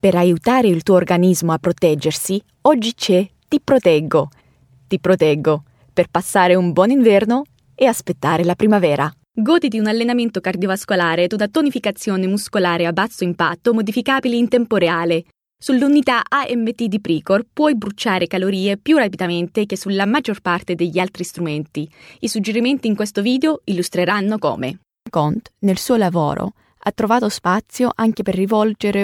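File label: Italian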